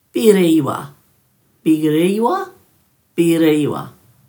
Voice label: "birriiwa", Dhurga